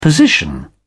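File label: "position", British English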